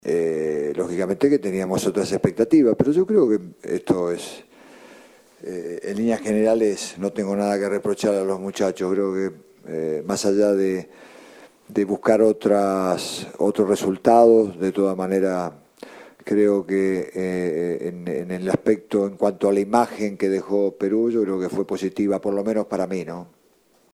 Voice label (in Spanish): cuña